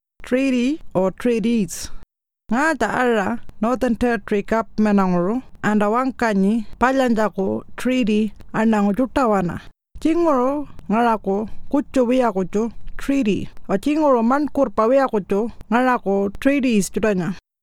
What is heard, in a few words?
Pintupi Luritja; Treaty or Treaties